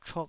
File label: "chuk2", Taiwanese